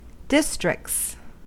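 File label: "districts", American English; pronunciations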